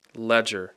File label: "ledger", English